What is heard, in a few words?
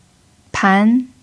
"pan2", Chinese